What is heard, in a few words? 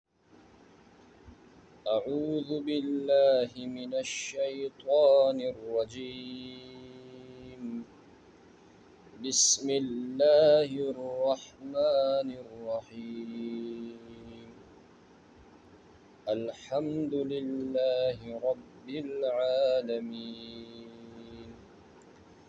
Recitation; lahore pakistan